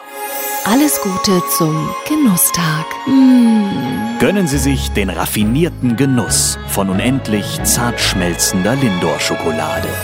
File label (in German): Radiospot; Lindt Schokolade